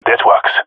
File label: agree, jungle male; vo; agents; Source Model Packs; Counter Strike Model Pack; CS2; jungle male epic